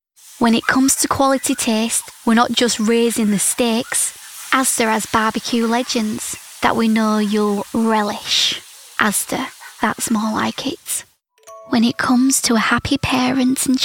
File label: Commercials